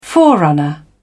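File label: "forerunner", British English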